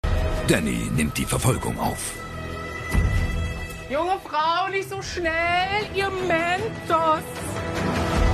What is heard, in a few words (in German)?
junge frau